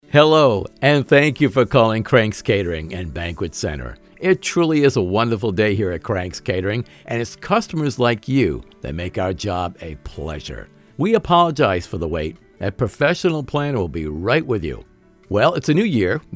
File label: on hold message; CRANKS